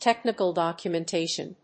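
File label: "technical documentation", English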